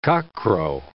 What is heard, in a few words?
"cockcrow", English